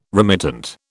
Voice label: "remittent", British